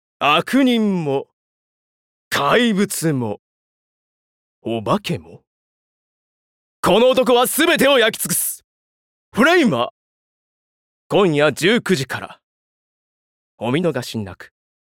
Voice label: Narration; Youga CM